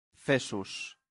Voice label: "fessus", latin; santillana

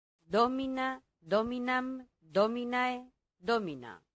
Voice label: "domina", latin; santillana

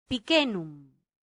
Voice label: "picenum", Latin; santillana

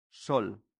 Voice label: "sol", Latin; santillana

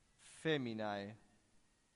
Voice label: "feminae", Latin; santillana

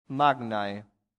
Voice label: "magnae", Latin; santillana

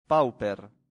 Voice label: "pauper", latin; santillana

